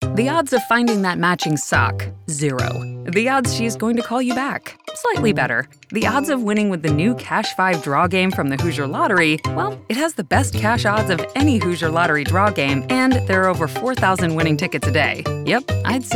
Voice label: Commercial